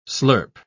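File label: "slurp", English pronunciation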